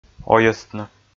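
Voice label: "ойӧстны", Komi, male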